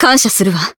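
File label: healed, Japanese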